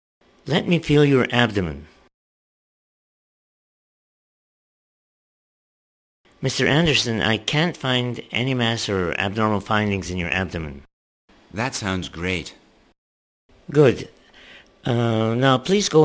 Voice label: Conversation